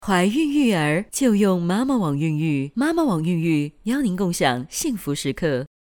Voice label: 女 广告 怀孕育儿广告

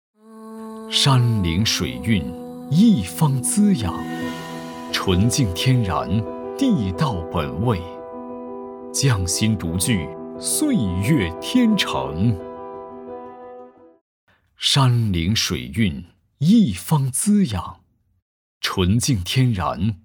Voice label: 男 宣传韵味; 地道本味

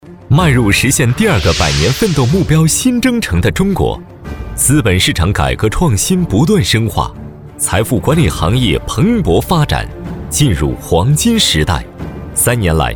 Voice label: 男 宣传片 激情大气 有感情起伏; 财富管理转型

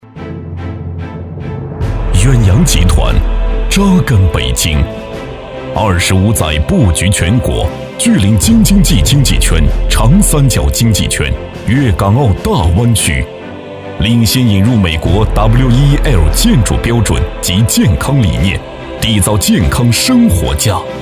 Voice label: 男 专题 企业; 三水远洋

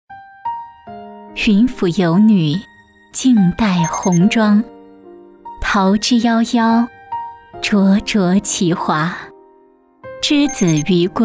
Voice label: 女 旁白; 灼灼其华

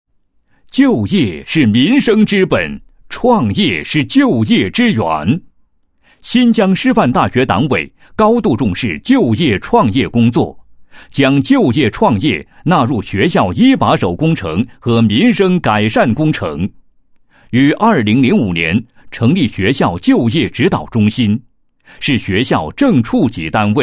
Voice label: 男 学校专题; 师范大学就业指导中心介绍

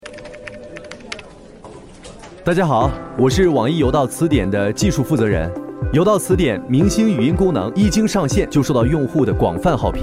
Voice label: 男 广告 口语讲述; 有道词典语音

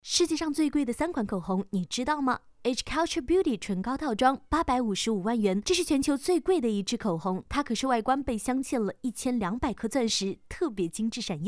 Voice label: 女 抖音视频 知性 优雅 性感风格

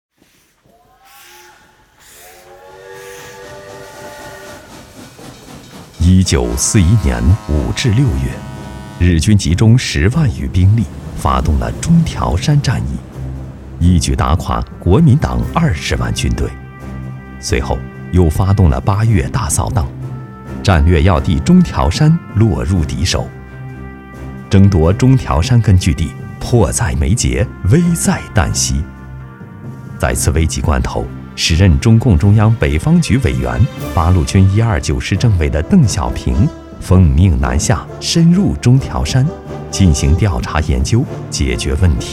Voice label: 男 纪录片 抗战; 中条山

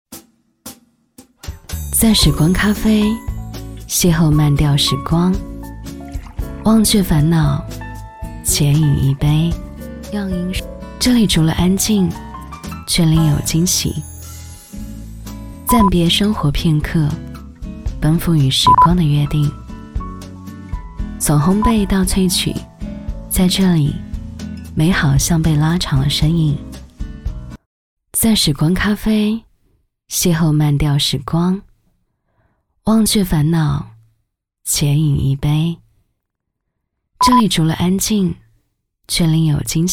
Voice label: 女 优雅广告; 在莳光咖啡

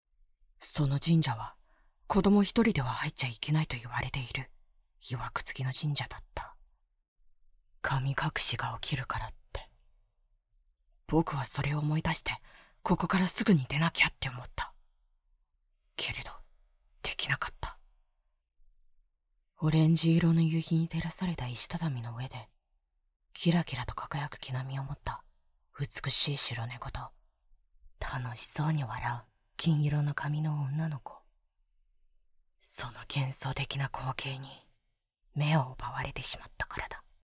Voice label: 外籍日语女 角色 少年

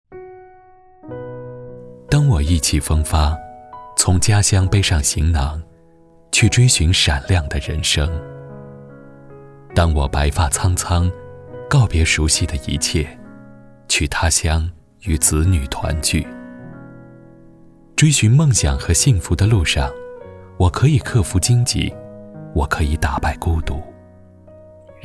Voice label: 男 旁白 情感讲述 自然; 医保相伴

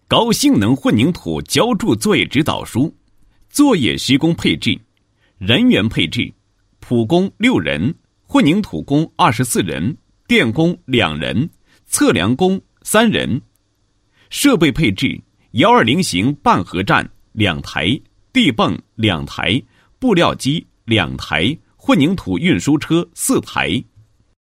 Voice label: 男, 课件; 高性能混凝土浇筑作业指导书

